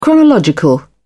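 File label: "chronological", British English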